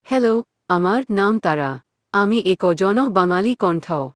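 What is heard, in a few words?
Indian Bengali; bn-IN; iOS 26 Sounds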